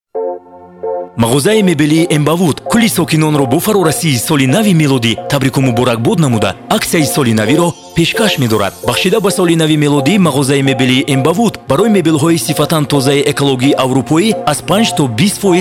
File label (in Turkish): Tacikce Erkek, seslendirme; erkek sesler